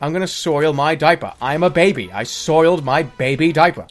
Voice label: jeers; scout

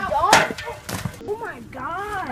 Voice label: twang oh my gawwwd

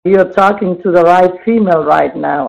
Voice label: right female; dia

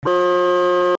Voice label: "bruh" freeze

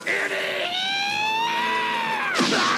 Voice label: wry

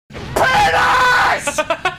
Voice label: yelling penis

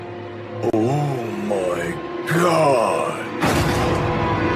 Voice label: omg dramatic

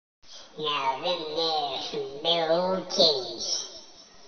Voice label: voice changes

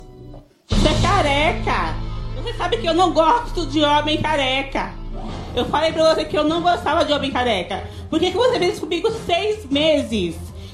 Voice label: funny voice record

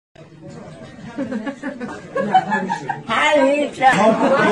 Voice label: german

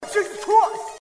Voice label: cholo preaching for jesus christ

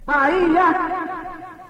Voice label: bahia radio; globo

